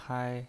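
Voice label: "hai", Mandarin Chinese, first tone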